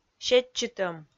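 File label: "сетчытӧм", Komi, female; kpv audio dict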